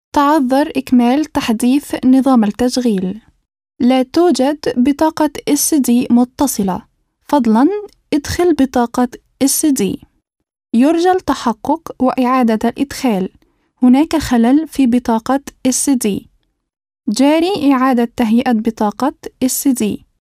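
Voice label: Arabic, female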